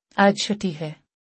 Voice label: Today is a holiday - urdu; Time and Routine

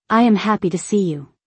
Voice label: I am happy to see you. English; Basic Greetings and Courtesy

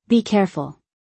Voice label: "Be careful", English; Basic Greetings and Courtesy

